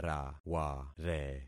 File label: "raware", concat syllables; Affixing experiment